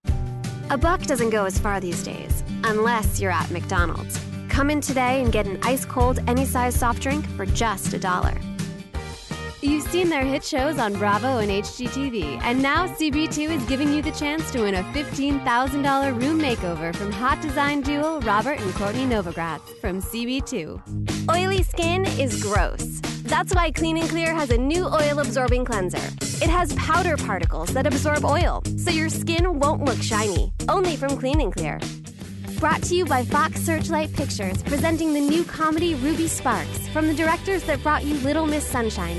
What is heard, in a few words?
Commercial Promo